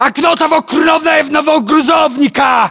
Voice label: landmark near truck brown, ru; localized english